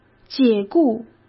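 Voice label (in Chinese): "解雇", Mandarin Chinese; hsk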